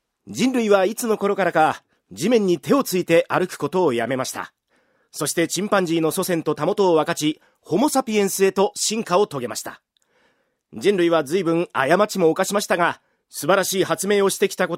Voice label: narration